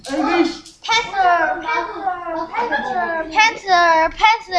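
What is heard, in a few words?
"pencil", spoken word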